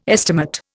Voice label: "estimate", Indian